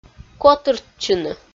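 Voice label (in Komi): "котыртчыны", Komi-Zyrian, female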